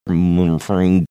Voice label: mumbling merfer